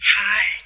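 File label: "hi", female; introductions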